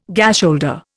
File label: "gasholder", Indian